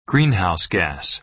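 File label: "greenhouse gas", American English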